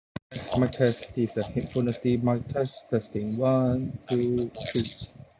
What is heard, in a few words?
Noisy; Tribit FlyBuds C1